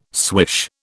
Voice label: "swish", British

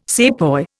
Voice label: "sepoy", Indian

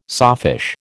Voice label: "sawfish", American

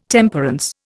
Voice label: "Temperance", Indian

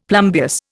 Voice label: "plumbeous", Indian; Dictionary

